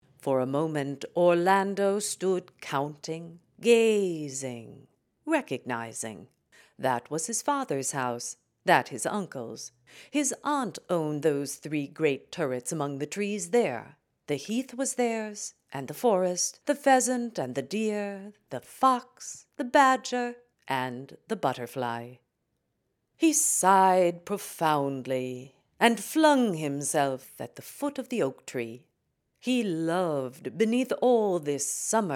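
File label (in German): US Erzählung, englisch; sprecher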